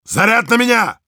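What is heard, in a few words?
activate charge, Russian; Heavy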